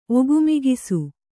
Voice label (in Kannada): "ಒಗುಮಿಗಿಸು", Kannada